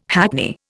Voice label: "hackney", Indian